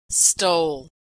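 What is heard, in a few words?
"stole", American English; verb; Consonant Sound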